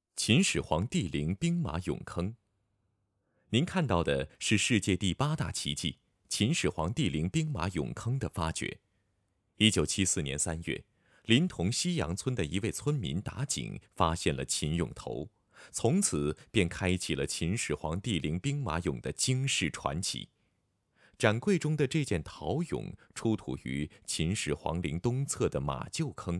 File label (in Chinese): 考古博物馆展厅配音